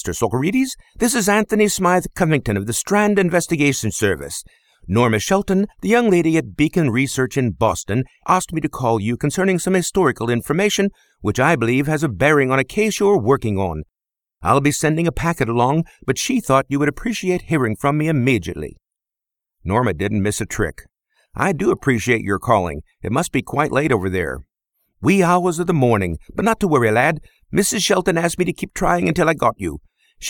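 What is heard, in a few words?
British Accent, Voice Actor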